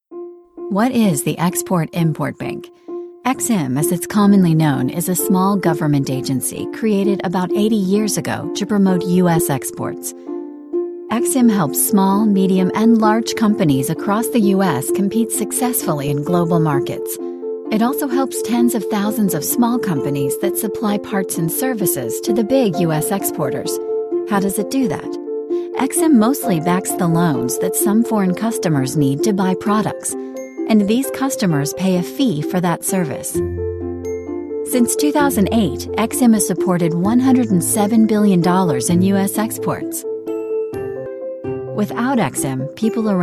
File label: Explainer Political Business